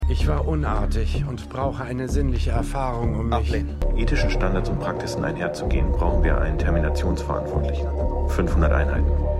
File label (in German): Unartiger Mann; Extrapolations